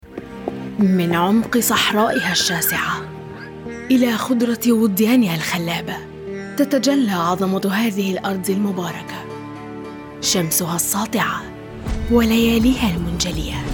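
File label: Modern Standard Arabic COMMERCIAL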